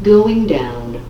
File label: elevator down message